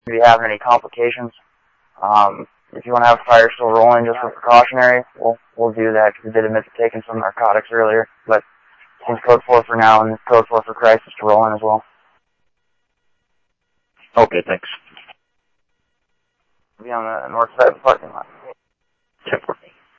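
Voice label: Phoenix police department, scanner; PHOENIX PD-200 A Phoenix PD; ThompsonPeakHigh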